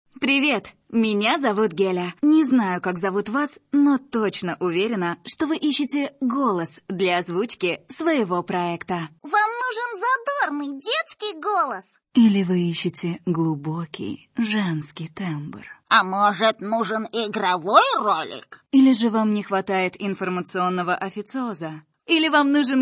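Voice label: announcer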